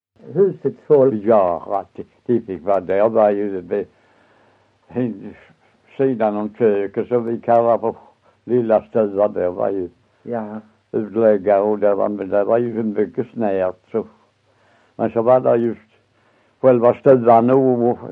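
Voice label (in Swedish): Össjö Skåne, dialekter, svenska sagor; Folkminnen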